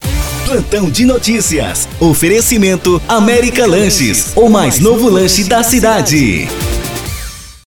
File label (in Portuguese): VOZ IMPACTO GRAVE vinheta plantao de noticias para radio; programacao de radio vinhetas